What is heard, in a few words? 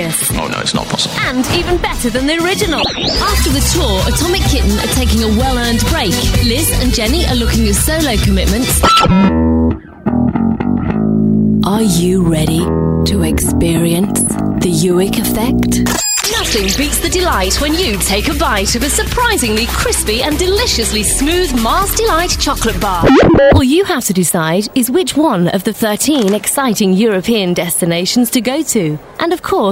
Comemrcial British